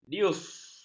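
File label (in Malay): "dius", Malay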